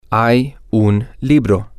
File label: there Is A Book M, spanish